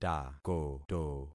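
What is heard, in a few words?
"takoto", concatenated syllables; Affixing experiment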